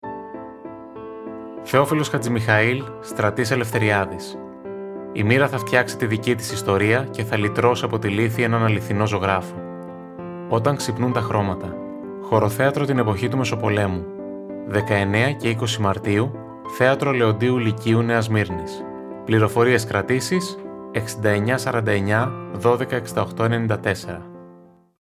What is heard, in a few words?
Radio Spot song; Molivos; Ο Πολιτιστικός Σύλλογος Παραδοσιακών Χορών «Ο Μόλυβος» -Παράρτημα Αθήνας